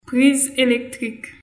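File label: Haitian Creole; prise electrique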